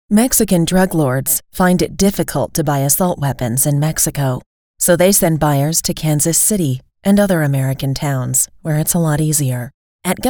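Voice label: Political Ad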